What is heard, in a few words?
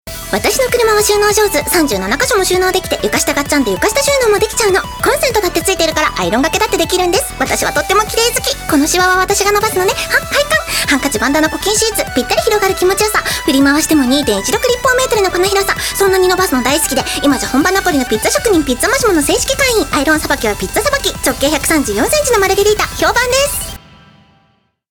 narration